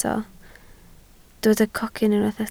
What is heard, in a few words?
"cocyn", Welsh